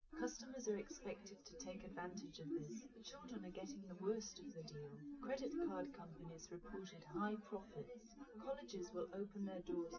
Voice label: test female live rec; SiSEC11; underdetermined